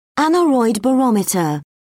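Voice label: "aneroid barometer", British English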